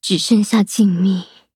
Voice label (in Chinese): defeat, zh-hant; cookie run